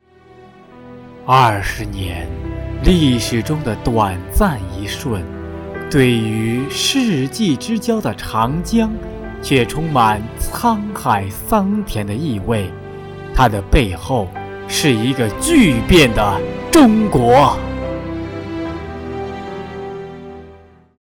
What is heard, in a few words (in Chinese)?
大气低沉, 男